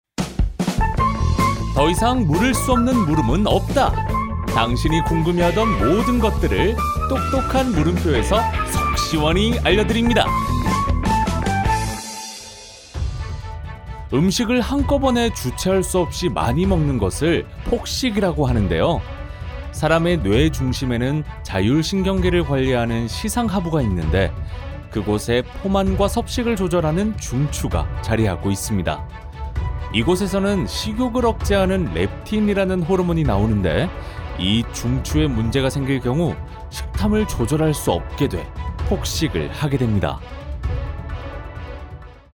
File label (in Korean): 시사교양 밝은; 생생정보통